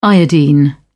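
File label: "iodine", British English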